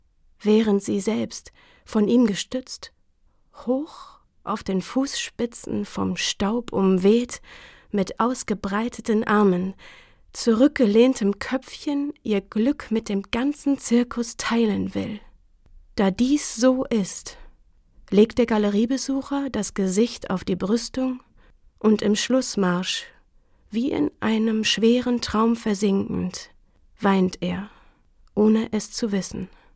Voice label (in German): Erzählung; shortcut